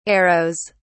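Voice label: "arrows", British English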